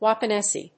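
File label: "Wapanese", English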